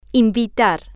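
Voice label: "invitar", Spanish, female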